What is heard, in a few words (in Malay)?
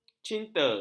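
"cinta", Malay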